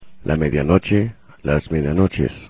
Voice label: "medianoche", spanish; time